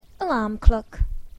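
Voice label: "alarm clock", English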